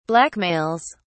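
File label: "blackmails", British English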